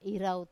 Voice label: "irau", I marry, Wamesa